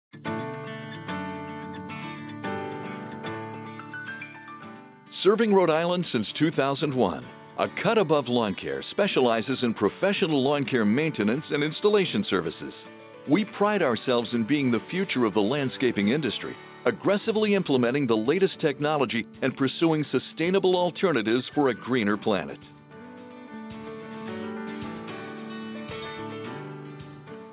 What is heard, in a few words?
Hold Message